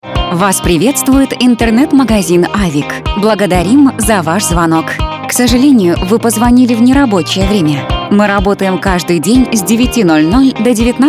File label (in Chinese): 俄语女 动感宣传片